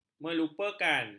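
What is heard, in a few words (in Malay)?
"melupakan", Malay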